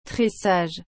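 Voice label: "tressage", French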